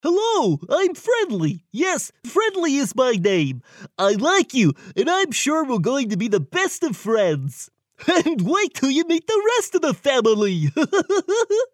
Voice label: Friendly Voice